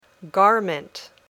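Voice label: "garment", English word; Passport to English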